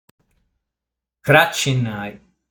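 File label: "Cracinai", Sardinian word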